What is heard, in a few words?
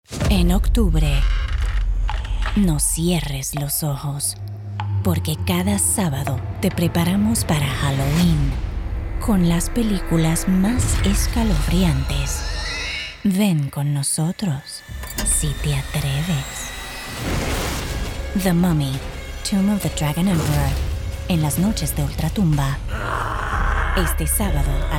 RADIO MIX; THE MUMMY